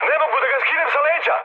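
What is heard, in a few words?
Serbian aircraft damaged mood med, speech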